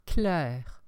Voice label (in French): "clair", French